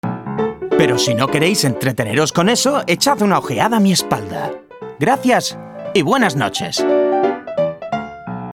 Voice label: SPANISH Male Adult; Ker Sound Studio Shanghai China